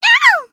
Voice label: Voice damaged; myungwha